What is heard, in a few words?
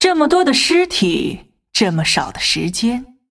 taunt, zh